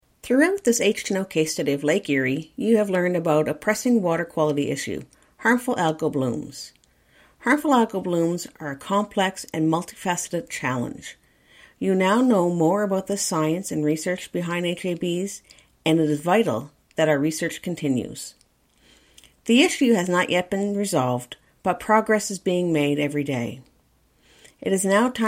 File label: narration intro